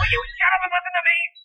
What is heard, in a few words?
comlink listen to me